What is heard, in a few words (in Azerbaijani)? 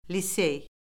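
"lisey", Azerbaijani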